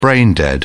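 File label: "brain dead", British English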